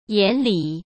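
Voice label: "眼里", Mandarin Chinese; chinese-flashcards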